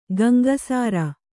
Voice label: "ಗಂಗಸಾರ", Kannada; gaŋgasāra; noun; = ಗಂಗಸರಾಯಿ.

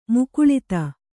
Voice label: "ಮುಕುಲಿತ", Kannada

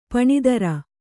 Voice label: "ಪೀನೋದರ", Kannada

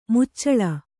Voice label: "ಮುಚ್ಚಲ", Kannada

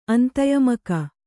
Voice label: "ಅಂತಯಮಕ", Kannada

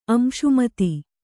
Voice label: "ಅಂಶುಮತಿ", Kannada

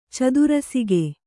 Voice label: "ಚದುರಸಿಗೆ", Kannada

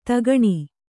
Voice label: "ಧೊಕ್ಕನೆ", kannada

